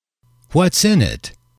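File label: whats in it, male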